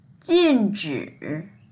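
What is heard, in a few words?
"jin4zhi3" Mandarin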